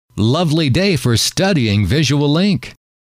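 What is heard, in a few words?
lovely day for studying, male; trainer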